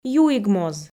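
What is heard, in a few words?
"юигмоз", Komi, female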